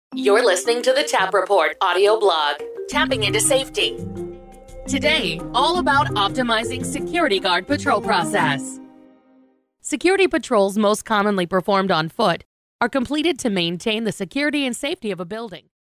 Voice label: Podcast; TapReport

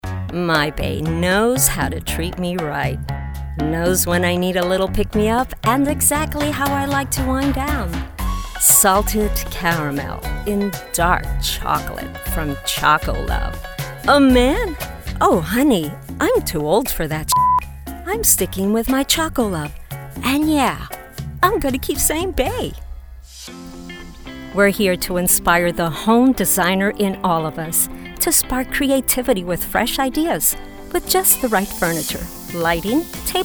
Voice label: Commercial Demo

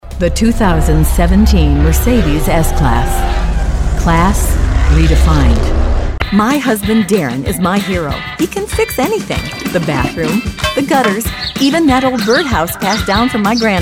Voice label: COMMERCIALS

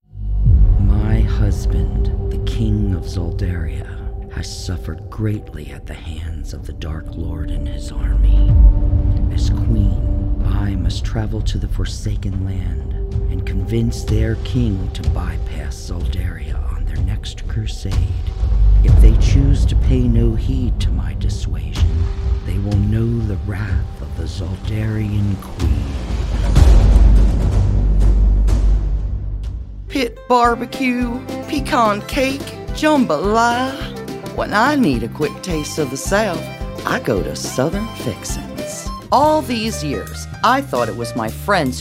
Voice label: Character

